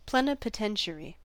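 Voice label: "plenipotentiary", American English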